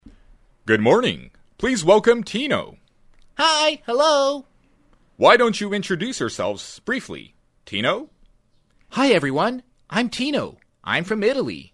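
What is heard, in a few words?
character, narrator USA male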